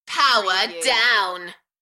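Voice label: UK female, Enthusiastic, DJ vocals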